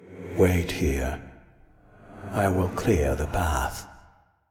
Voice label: Voice Dialog; we are the dwarves gamerip 2016